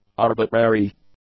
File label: "arbitrary", English; adjectives